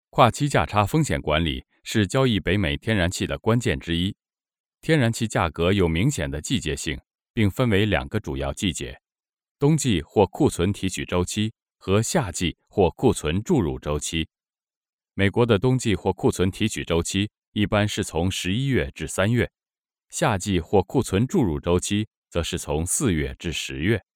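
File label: Chinese, male, medium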